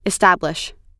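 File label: "establish", American English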